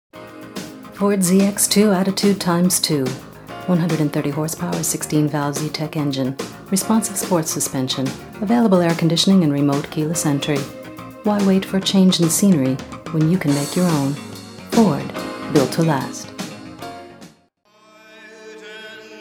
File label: voiceover female